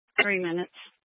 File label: Sheriff Dispatch; Mesa County